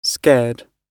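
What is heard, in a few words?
"scared", British; Pronunciation: skɛːd; fearful; frightened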